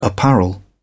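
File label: "apparel", British English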